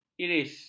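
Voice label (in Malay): "iris", Malay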